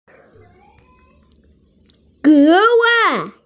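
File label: "especially", Mandarin, hearing-impaired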